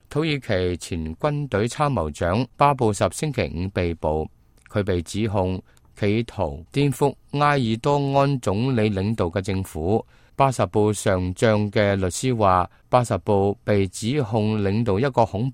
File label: cantonese, news